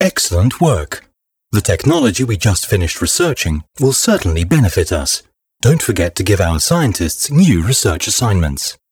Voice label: tutorial technologies success; Stellaris

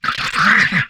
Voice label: humanoid male; Stellaris; humanoids

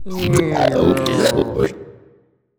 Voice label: portrait synthetic; Stellaris; machineage

